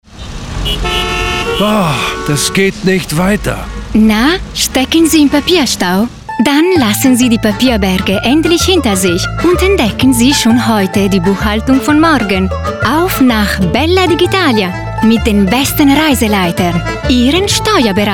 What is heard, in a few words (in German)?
Digitalia Spot Digitalisierungsstau; Bella Digitalia; Digitalia Spot 1